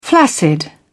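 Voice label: "flaccid", British English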